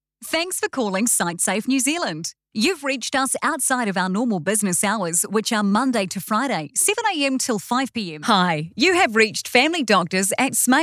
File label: NZ Female Voice Talent Phone